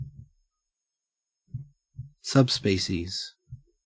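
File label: "subspecies", Australian English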